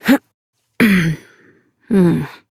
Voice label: Horse Dismount Adult; Duviri